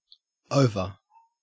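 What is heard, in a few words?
"over", Australian English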